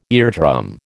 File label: "eardrum", American